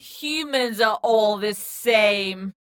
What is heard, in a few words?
Humans Are All The Same, Sci-Fi Voices; 344 Audio